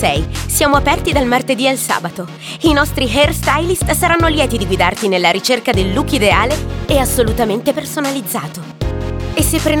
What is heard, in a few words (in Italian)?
spot backstage parrucchieri